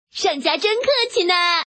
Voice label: woman chi